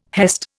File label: "hest", Indian